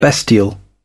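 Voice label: "bestial", British English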